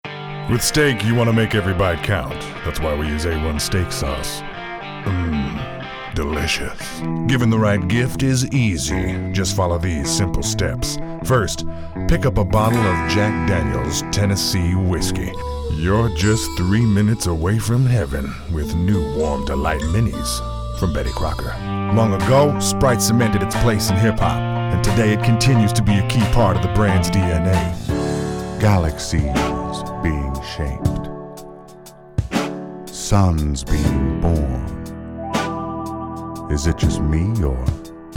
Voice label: Commerial; voices